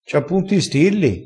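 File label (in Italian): "cia", dialettale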